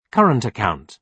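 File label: "current account", British English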